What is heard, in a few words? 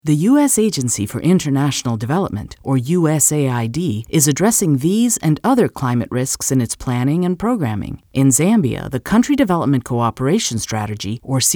Narration